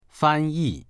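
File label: "fan1yi4", Mandarin Chinese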